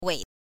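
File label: "wei", Mandarin Chinese, neutral tone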